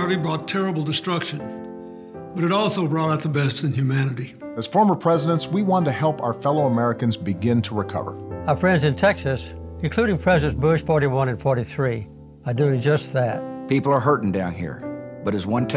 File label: radio spot; hurricane harvey